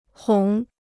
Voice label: "哄", Chinese